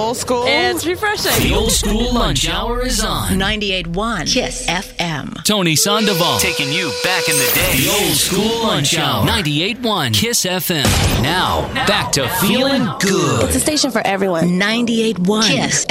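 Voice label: voice over rhythmic ac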